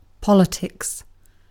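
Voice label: "politics", British English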